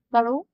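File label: Voice Acting es, female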